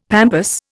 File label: "pampas", Indian; Dictionary